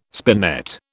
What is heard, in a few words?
"spinet", British